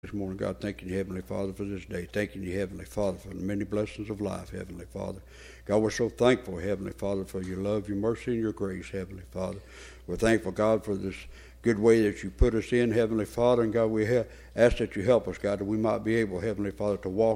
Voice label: Broadcast Prayer